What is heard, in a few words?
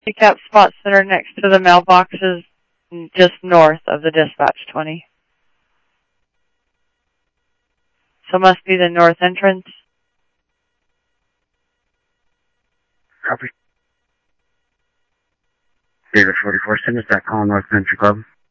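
Mesa Simulcast Police Department; MESA PD 2 Centrl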